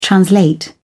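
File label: "translate", British English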